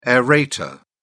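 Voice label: "aerator", British English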